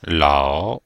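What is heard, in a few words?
"lao3", Chinese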